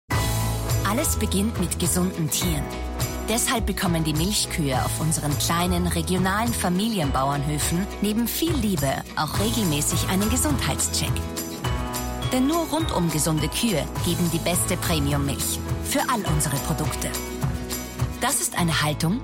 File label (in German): Frau OFF